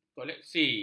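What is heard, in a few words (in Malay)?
"koleksi", Malay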